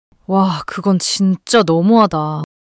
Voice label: anger; monologue